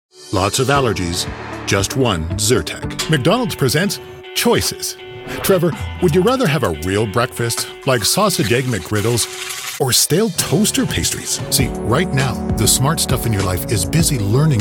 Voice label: Commercial